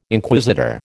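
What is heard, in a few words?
"Inquisitor", American English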